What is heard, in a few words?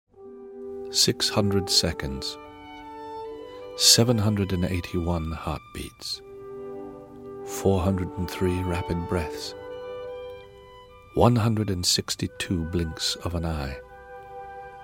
Standard English; Haagen-Dazs